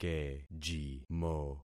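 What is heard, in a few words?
"kejimo", concatenated syllables; Affixing experiment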